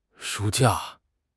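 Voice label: "shu1 jia4", Chinese; 汉语口语新时代-初级下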